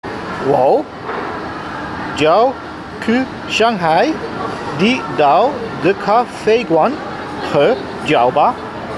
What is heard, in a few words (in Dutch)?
Waar vind ik de Gouda in het Chinees